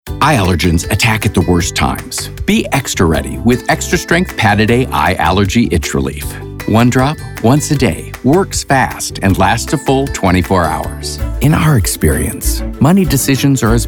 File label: vo commercial; commercial demo